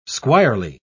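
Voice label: "squirely", British English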